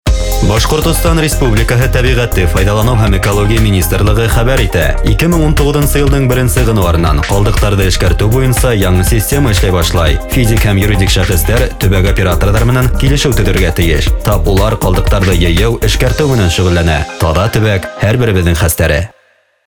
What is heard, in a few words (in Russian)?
аудиоролик, баш; ЗеленаяБашкирия; ТКО